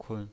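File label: "kun", pinyin, Mandarin Chinese, neutral tone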